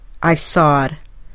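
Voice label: "I sawed", English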